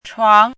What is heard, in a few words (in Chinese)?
"chuang2", 汉字语音